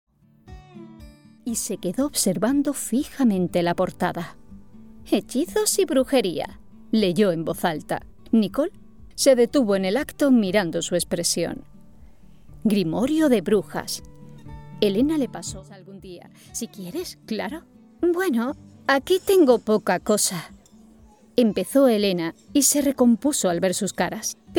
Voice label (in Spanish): AudioLibro